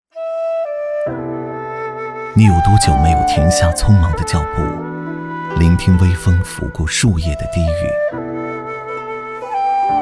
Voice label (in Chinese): 男 讲述 自然